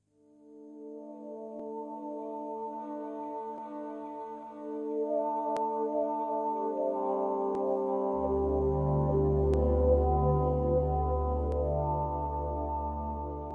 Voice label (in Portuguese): Romântica Ínicio de Namoro